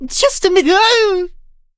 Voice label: hit, en; Cookie Run

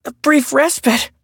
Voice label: hit, en; cookie run

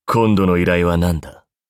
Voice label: upgrade, ja; Cookie Run

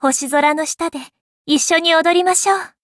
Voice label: levelup ja; Cookie Run

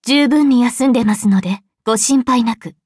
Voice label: upgrade, ja; Cookie Run

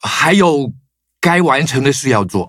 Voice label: defeat, zh-hant; cookierun

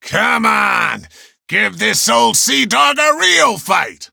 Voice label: level up, en; Cookie Run

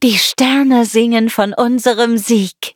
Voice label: victory, de; Cookie Run

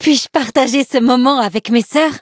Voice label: level up, fr

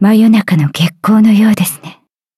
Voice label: levelup ja; Cookie Run

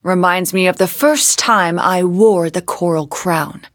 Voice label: levelup en

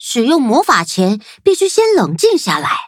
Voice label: loading zh-hant; Cookie Run

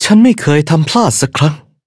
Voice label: victory, th

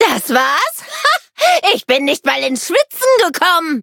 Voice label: victory, de; cookierun

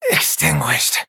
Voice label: hit, en; Cookie Run

